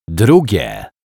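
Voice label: "drugie", Polish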